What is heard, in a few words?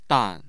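"dan", Chinese, fourth tone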